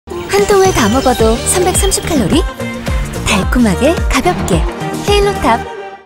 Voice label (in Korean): 광고; 헤일로탑 Halo Top